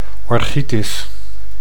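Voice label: "orchitis", Dutch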